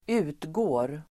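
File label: "utgår", Swedish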